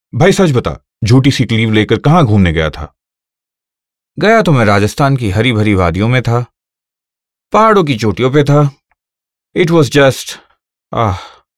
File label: Hindi Rajasthan, male voice